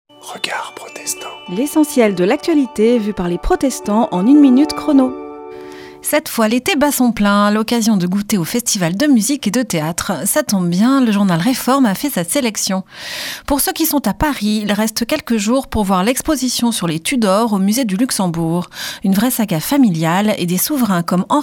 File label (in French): Spot radio; Regards protestants